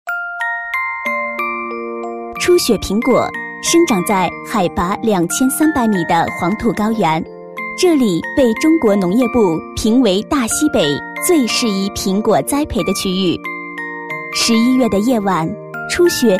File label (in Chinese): 专题, 国语女声配音; 初雪苹果